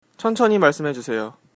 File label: slowly, korean